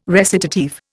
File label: "recitative", Indian